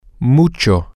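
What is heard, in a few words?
very a lot of, Spanish, male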